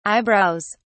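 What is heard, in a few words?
"eyebrows", British English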